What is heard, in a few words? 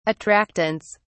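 "attractants", British English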